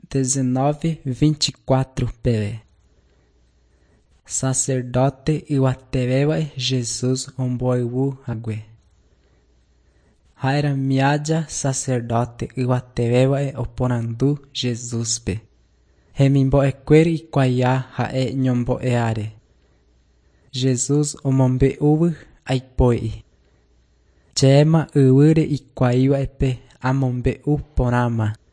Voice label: john guarani mbya, bible